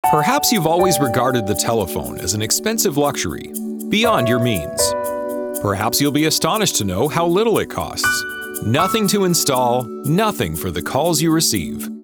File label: Phone Commercial